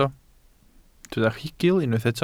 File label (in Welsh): "rhugl", Welsh